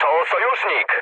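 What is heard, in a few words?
player friendly fire, mood med, Polish speech; War Thunder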